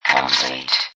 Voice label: Robot Voice "Complete"; Robot Sound Effects; Lynne Music

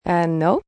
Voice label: Uh No, female; Response; Talking

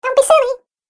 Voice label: Cartoon Game Voice Dont Be Silly

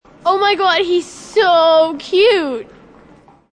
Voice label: Hes So Cute, Female, child; HesSoCute AMB; Talking Sound Effects; Sound Effects:Voice; VoiceBox

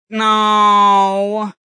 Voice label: "No" Male, Exclamation

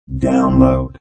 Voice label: Robot Voice "Download"; Robot Type 2 voice; Lynne Music

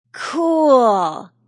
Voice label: Wmn Says Cool; A woman says; Woman Says Cool 1; Talking

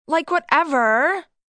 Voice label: Like Whatever, Attitude, 1 girl, 12 yrs; VoiceBox

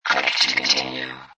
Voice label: Robot Voice "Click To Continue"

